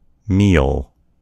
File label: "meal", en US; gcompris